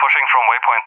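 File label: "pushing from waypoint", English speech; Messages; Allied Flight; DCS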